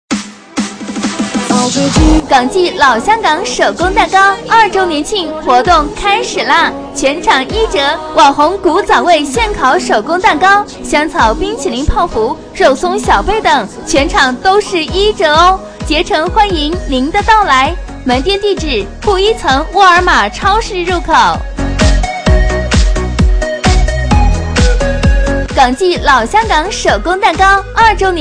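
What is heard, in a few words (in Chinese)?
女促销 手工蛋糕; 港记手工蛋糕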